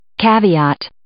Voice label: "caveat", English

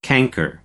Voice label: "canker", American; English; ɚ - əɹ

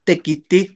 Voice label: "tekitij", Náhuatl; trabajan; they work

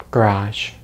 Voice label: "garage", English; Consonants in American English; easypronunciation

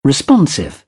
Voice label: "responsive", British English; ɑː - ɒ

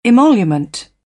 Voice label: "emolument", British; ɑː - ɒ; English

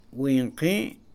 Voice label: "veinte", Mam; Números; INALI

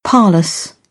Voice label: "parlous", British English

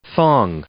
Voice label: "thong", English; noun

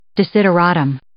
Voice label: "desideratum", English

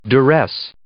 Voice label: "duress", English

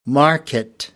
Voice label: "market", American English

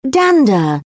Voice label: "dander", British; ɚ - əɹ; English

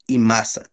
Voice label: "masatl", Náhuatl; venado; deer

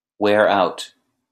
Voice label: "wear out", English

